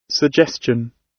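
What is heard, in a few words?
"suggestion", British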